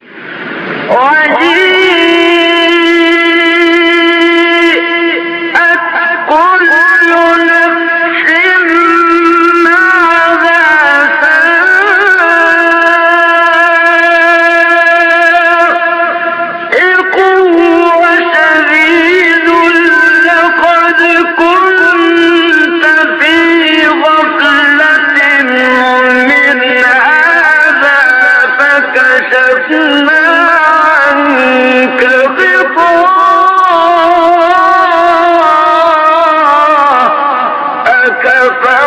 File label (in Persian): تلاوت زیبای سوره ق; آیه 21 22; استاد منشاوی